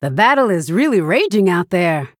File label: Female Human General; Bacon Shop Bob